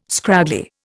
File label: "scraggly", Indian